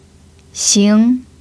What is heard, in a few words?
"xing2", Mandarin pinyin